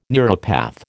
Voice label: "neuropath", American